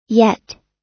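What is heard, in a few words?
"yet", US English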